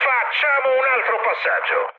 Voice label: bomb failure mood high, speech it; War Thunder